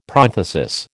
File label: "prothesis", American